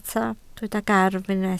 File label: "gafr", Welsh